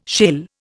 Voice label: "shale", Indian